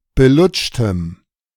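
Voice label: "belutschtem", German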